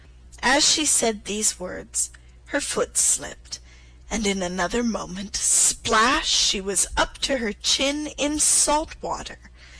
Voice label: alice in wonderland slow